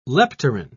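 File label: "leptorrhine", British English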